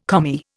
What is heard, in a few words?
"Commie", Indian